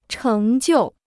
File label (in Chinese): "成就", Chinese; chéng jiù; accomplishment; success